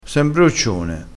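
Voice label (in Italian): "broccione", vocabolario dialettale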